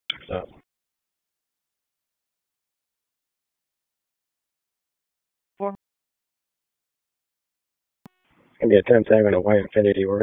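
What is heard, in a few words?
Raleigh Police Southeast District Dispatch; p25; wake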